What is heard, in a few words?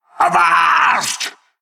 Male Qiraji Attack